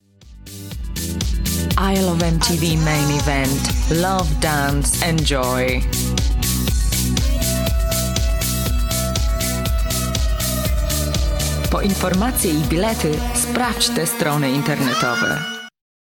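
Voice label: Polish Accented English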